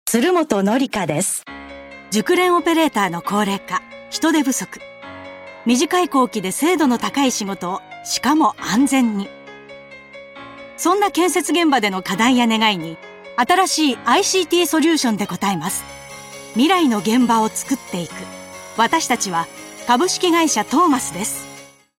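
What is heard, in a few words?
female radio commercial, English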